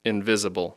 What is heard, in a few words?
"invisible", English; Speech Pro